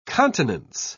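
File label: "continence", British English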